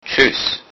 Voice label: goodbye, lowsaxon